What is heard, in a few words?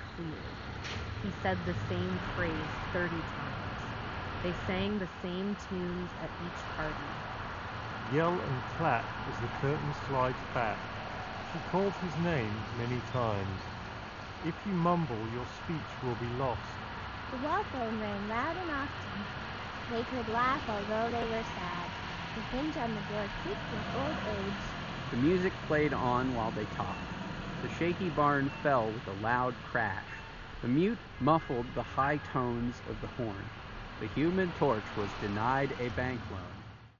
Street microphone sample; Samsung Galaxy Buds 3